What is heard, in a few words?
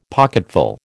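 "pocketful", American English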